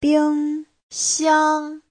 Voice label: "bing1xiang1", Chinese; 冰箱; (danh từ) tủ lạnh; Giáo trình chuẩn HSK3